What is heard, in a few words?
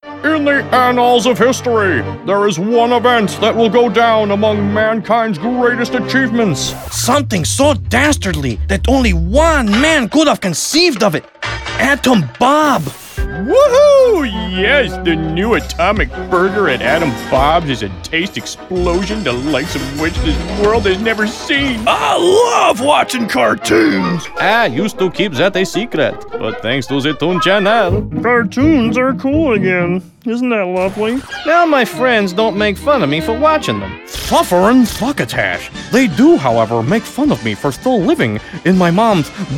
character